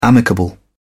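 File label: "amicable", English, British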